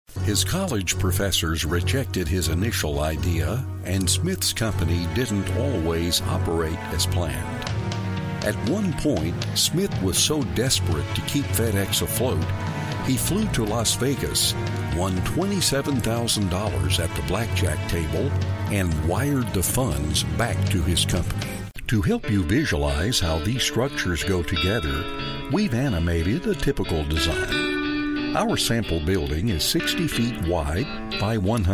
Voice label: Narration Male; commercial voiceover

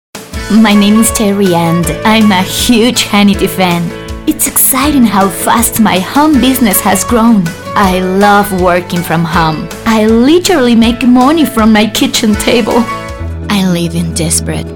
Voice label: Characters English Female; Character, Spanish (Latin and Castillian)

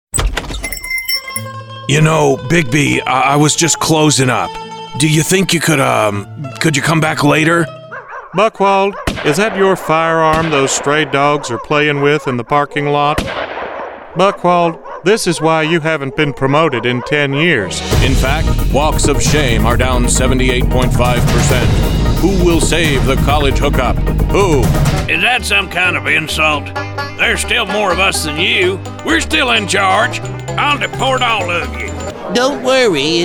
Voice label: Character Male, commercial voiceover

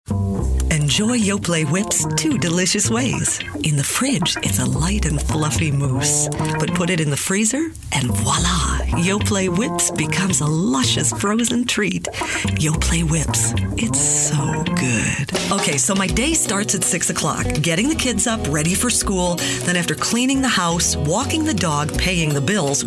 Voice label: Commercial Female, Strong, Sexy, Vibrant; TV and Radio

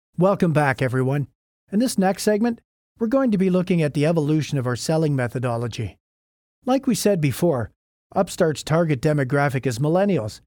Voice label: eLearning Male

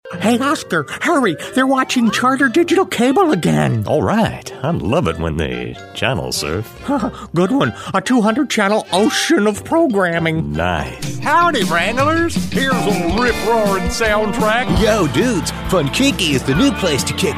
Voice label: Character Male, commercial voiceover

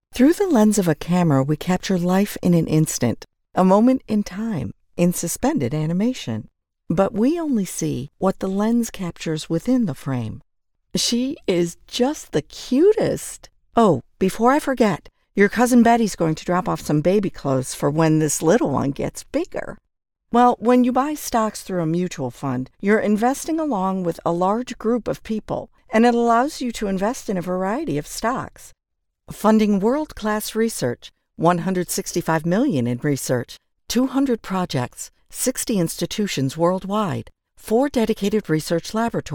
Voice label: Explainer Female

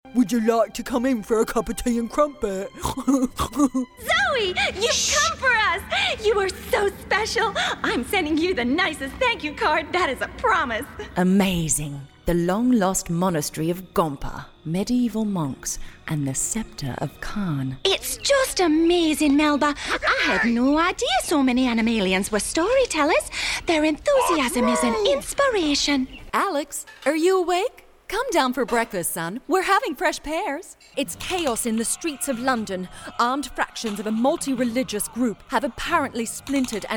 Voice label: Characters Female; commercial voiceover

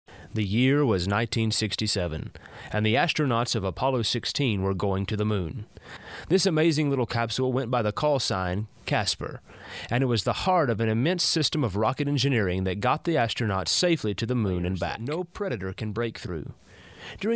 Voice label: Narration Male; commercial voiceover

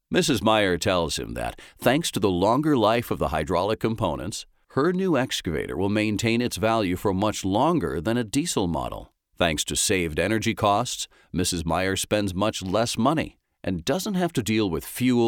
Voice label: Explainer Narration; Friendly, Baritone, Calming, Upbeat, Storyteller, Sincere

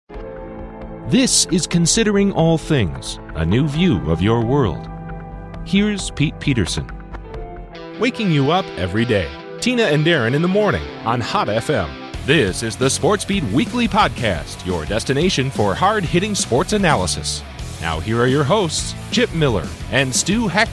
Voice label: Imaging Promo, commercial voiceover

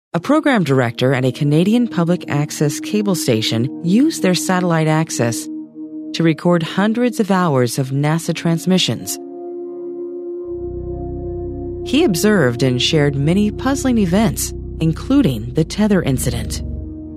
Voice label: Narration Female

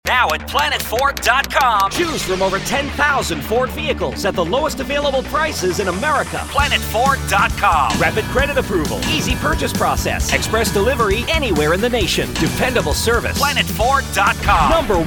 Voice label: Automotive, commercial voiceover

